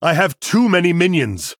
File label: Male Human Error Full Minions; Arthas